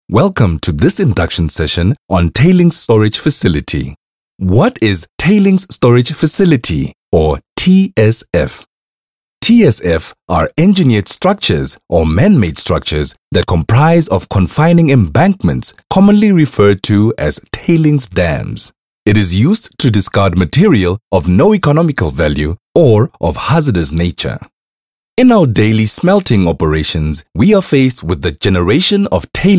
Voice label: Mining Explainer